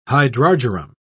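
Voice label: "hydrargyrum", British English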